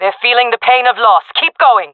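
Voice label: round winning, female voice; player vo; Counter Strike Model Pack; CS:GO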